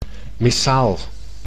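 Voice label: "missaal", Dutch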